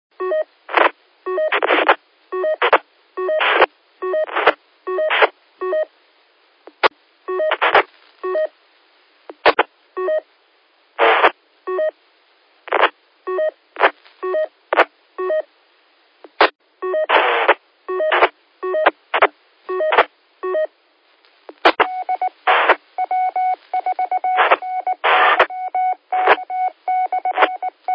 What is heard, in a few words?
qso rec repeater logic